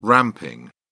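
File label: "ramping", British English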